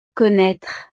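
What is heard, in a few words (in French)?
"connaitre", French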